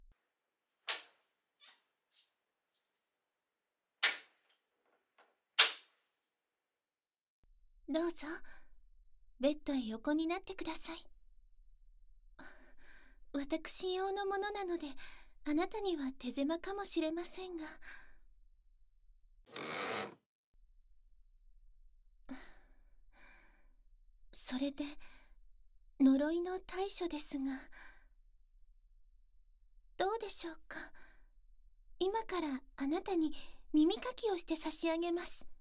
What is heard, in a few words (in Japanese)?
愛情たっぷり耳かきでご奉仕, ASMR